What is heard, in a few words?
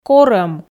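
"корӧм", Komi, female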